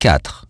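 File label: "four", English; iOS 4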